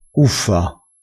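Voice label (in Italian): "uffa", vocabolario dialettale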